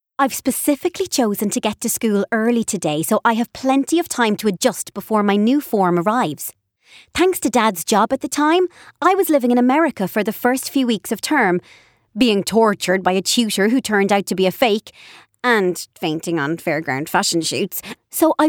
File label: Audiobook; Geek Girl